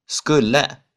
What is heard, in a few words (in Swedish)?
"skulle", Swedish